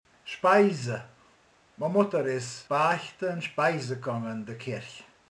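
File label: "áldozik", Hungarian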